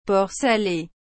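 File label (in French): "porc salé", French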